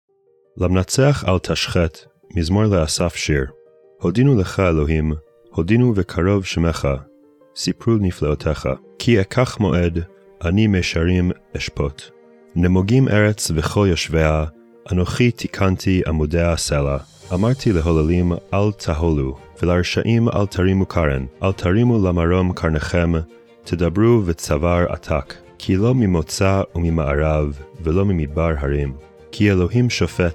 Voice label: poetry and prayer psalm hebrew; Meir Soloveichik